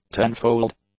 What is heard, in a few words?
"tenfold", English; adjectives